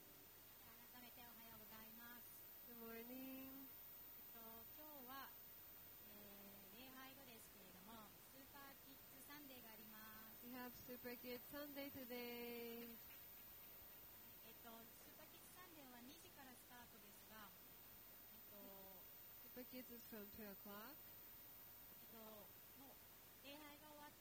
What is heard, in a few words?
announcement; sunday message 2021